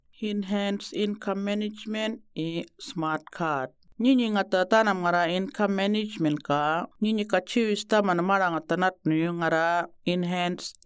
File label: murrinh-patha; eim and smartcard